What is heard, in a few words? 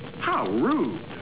VULGAR